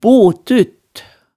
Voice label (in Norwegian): "botut", hemsedals maalet tale